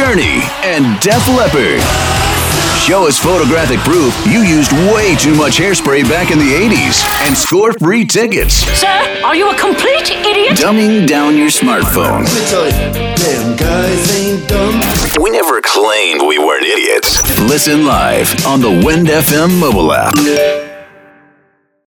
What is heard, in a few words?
Classic Rock Hits Imaging